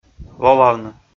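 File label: "лолавны", Komi, male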